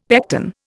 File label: "pecten", Indian; Dictionary